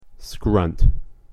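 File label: "scrunt", English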